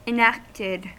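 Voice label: "enacted", US English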